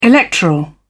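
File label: "electoral", British English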